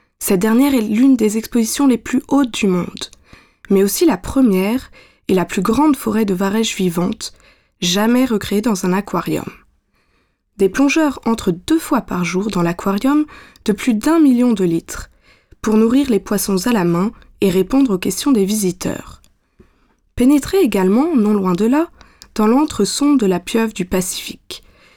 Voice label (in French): french f narration; voice demos